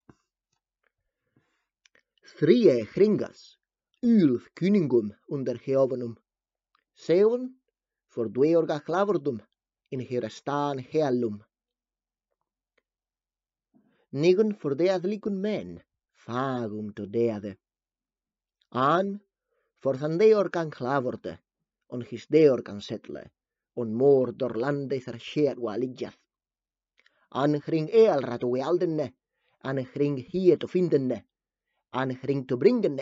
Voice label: Poema del anillo Ingles antiguo

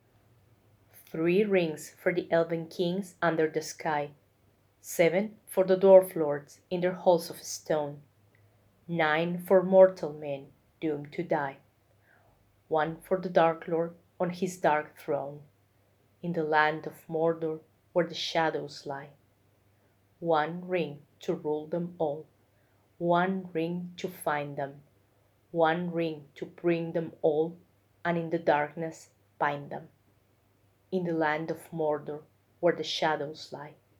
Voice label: Poema anillo Inglés

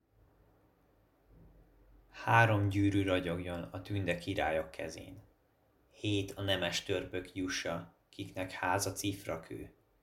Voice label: Poema anillo Hungaro

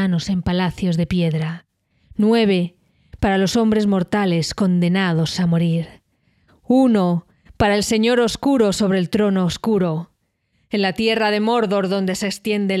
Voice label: Poema anillo Espanol crescendo

